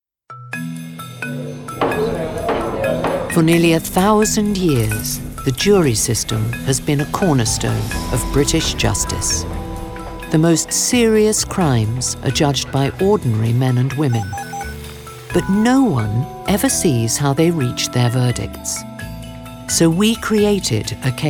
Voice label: narrative

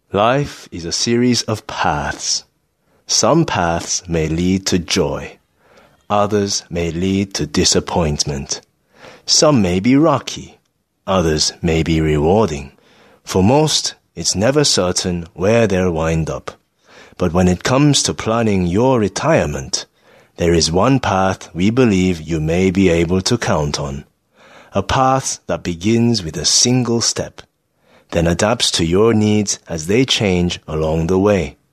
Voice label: Korean Eng

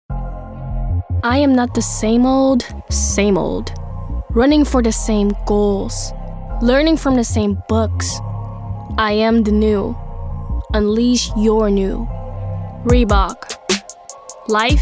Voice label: commercial English

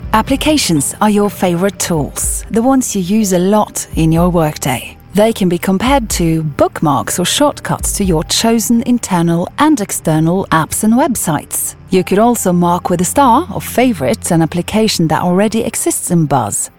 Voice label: English

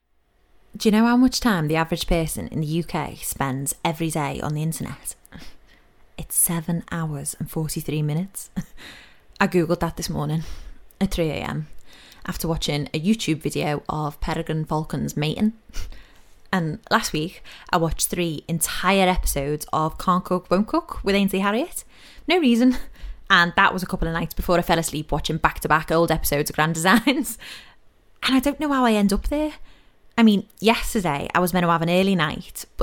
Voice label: Scouse Monologue

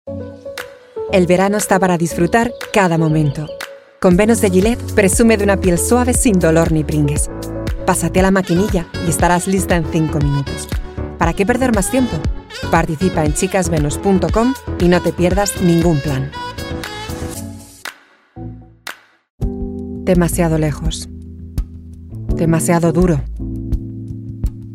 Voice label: Spanish

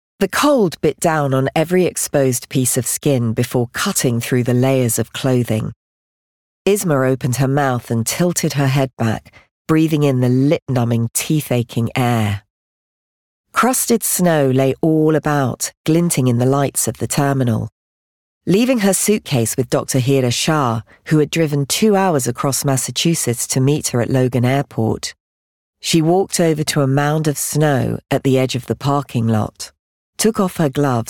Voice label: Audiobook, 30s

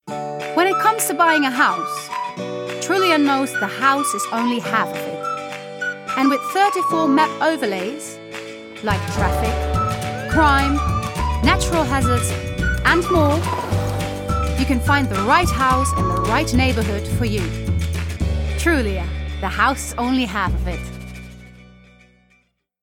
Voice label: English

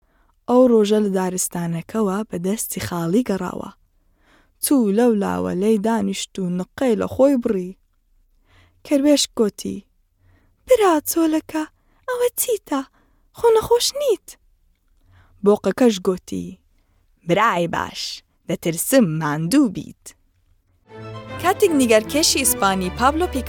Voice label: Kurdish

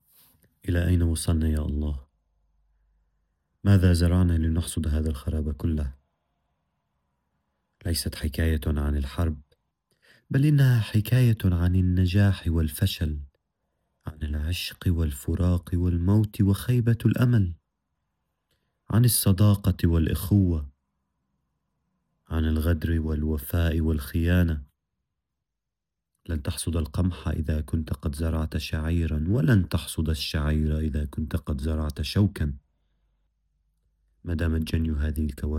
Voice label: Arabic

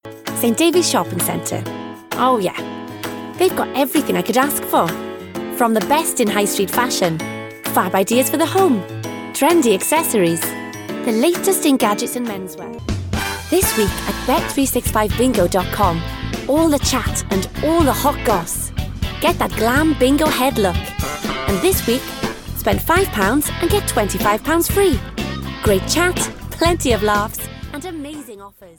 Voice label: English

